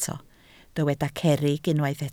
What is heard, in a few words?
"cerrig", Welsh